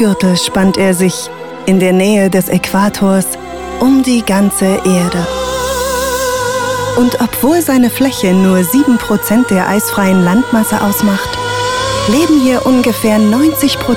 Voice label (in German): Dokumentation Regenwald